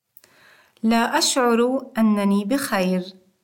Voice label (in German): geht nicht gut, Arabisch; Interkultur; LearningApp